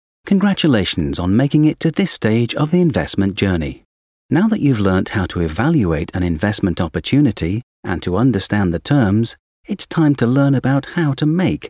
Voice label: British Voiceover eLearning Video; EQUITY CROWFUNDING

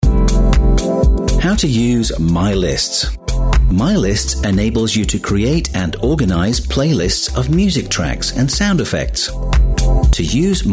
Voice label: British Voiceover CORPORATE

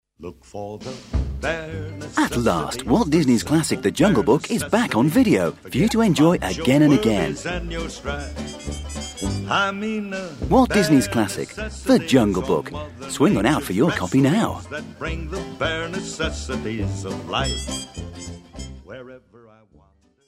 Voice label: British Voiceover TV Commercial; JUNGLE BOOK VIDEO

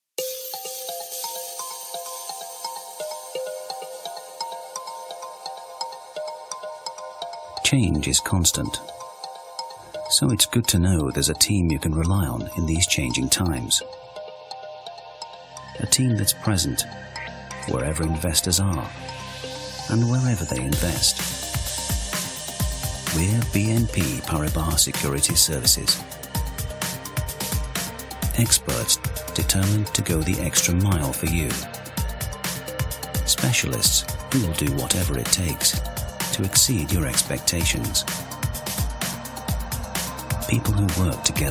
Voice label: British Voiceover Corporate Film; BNP PARIBAS

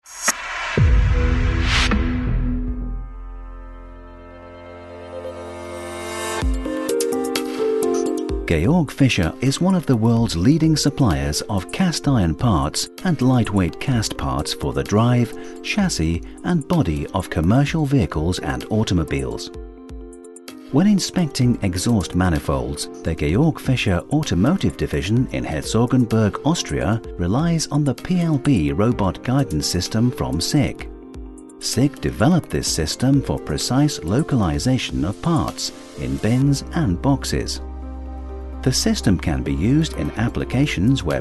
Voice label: British Voiceover, Industrial Film; SICK SYSTEMS

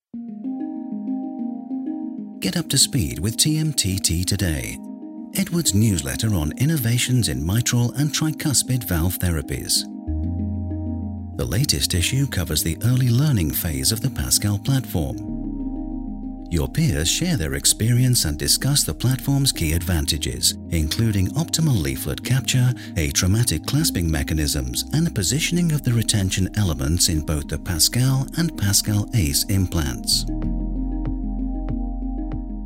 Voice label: British Voiceover Explainer Video; EDWARDS LIFESCIENCES TMTT Today4

